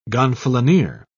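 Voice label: "gonfalonier", British English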